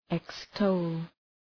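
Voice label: "extol", English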